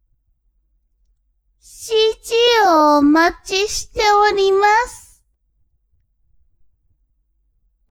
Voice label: woman